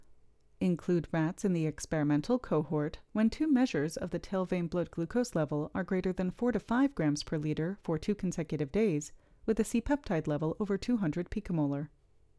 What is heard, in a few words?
Voiceover